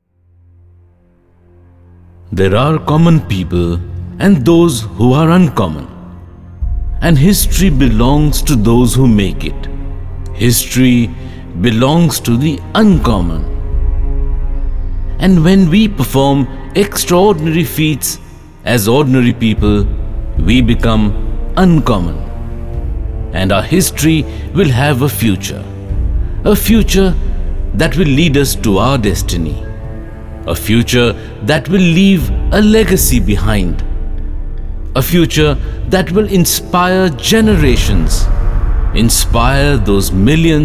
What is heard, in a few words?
narration english delhi, male voice